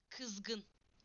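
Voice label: "angry", Turkish; PictureDictionary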